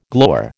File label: "glower", American